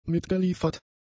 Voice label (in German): "mitgeliefert", German; adjectives